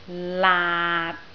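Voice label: "laat6", Cantonese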